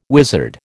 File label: "wizard", American